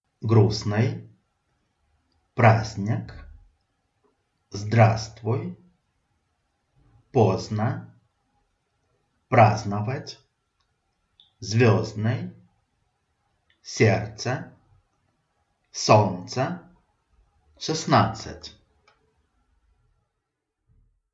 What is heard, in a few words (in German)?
russisch